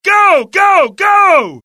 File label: suppress, dialogue generic US; mohaa